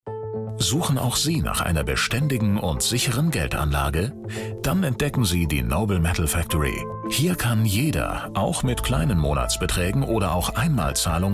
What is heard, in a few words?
Infomercial; Noble Metal Factory